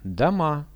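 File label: "houses", Russian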